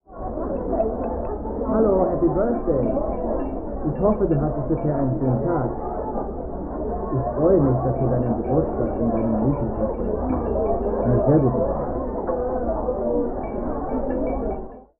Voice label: dinner german without